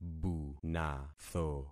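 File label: "bunatho", concatenated syllables; Affixing experiment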